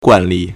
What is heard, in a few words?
"guan4li4", Chinese